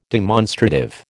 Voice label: "demonstrative", American

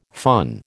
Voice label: "fun", American

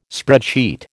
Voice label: "spreadsheet", American

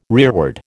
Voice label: "rearward", American

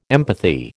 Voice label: "empathy", American

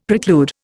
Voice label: "preclude", Indian; Dictionary

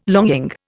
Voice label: "longing", Indian

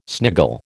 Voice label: "sniggle", American

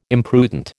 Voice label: "imprudent", American

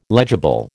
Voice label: "legible", American

